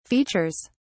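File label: "features", British English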